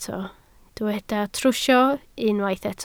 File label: "trwsio", Welsh